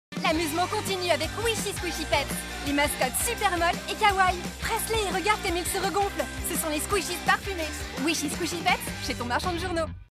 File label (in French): pub JOUETS SQUISHIES; voix-off; ALTAYA